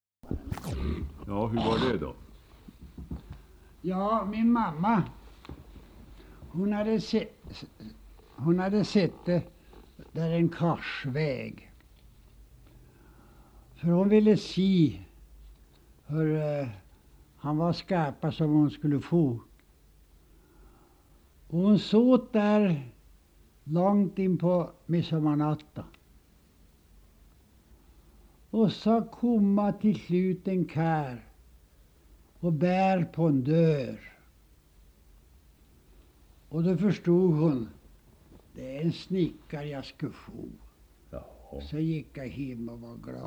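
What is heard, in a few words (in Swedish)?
Sollefteå Ångermanland, dialekter; Folkminnen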